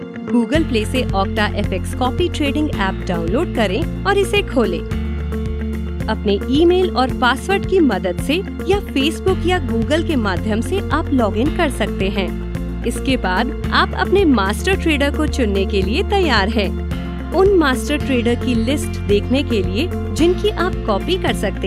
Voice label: Hindi Explainer Presentation Tutorial App Promo